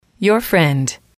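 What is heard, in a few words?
your friend, female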